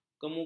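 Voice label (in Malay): "kemukus", Malay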